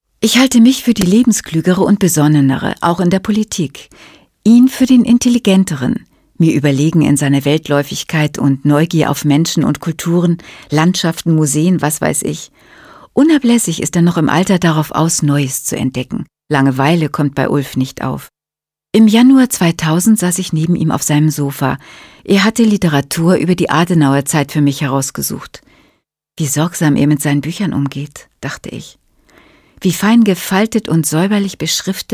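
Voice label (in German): Erzählung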